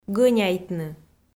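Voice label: "гӧняйтны", Komi, female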